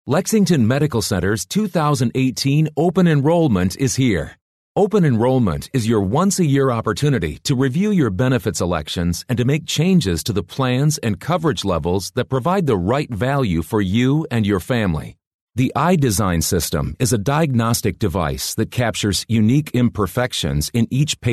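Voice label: US English, male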